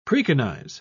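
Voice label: "preconize", British English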